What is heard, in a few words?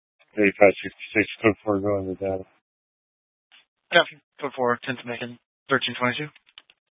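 Police 1 Dispatch, Scanner audio recording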